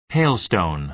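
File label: "hailstone", American English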